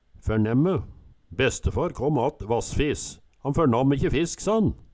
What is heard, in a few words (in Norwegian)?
fernemme, Numedalsmål